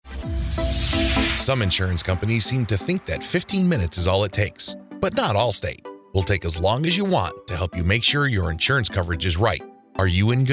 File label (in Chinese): 动感磁性 保险公司宣传